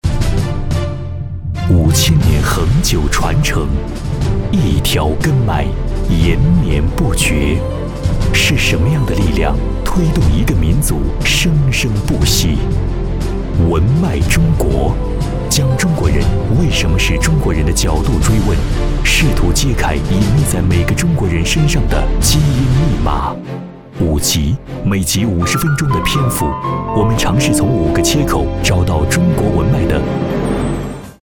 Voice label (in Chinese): 男 片花; 文脉中国